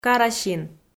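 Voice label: "карасин", Komi, female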